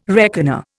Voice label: "reckoner", Indian

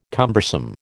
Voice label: "cumbersome", American

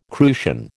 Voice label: "crucian", American

